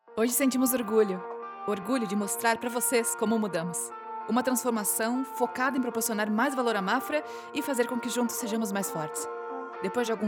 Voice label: dubbing authentic friendly female brazilian portuguese; mapfre; mawdy